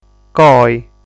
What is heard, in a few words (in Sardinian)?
"Coi", Sardu